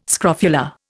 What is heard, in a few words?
"scrofula", Indian